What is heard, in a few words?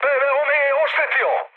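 Serbian damaged aa mood high, speech; War Thunder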